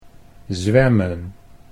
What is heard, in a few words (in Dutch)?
"zwemmen", Dutch